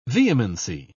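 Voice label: "vehemency", British English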